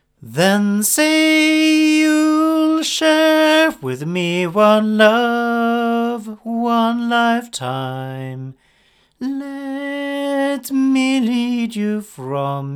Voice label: Oktava MKL-5000 omni male